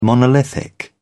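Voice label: "monolithic", British English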